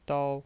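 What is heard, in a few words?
"dao", Mandarin Chinese pinyin voice, first tone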